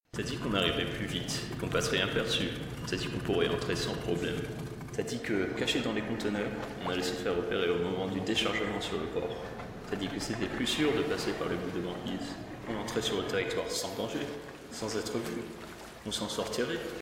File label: Theatre monologue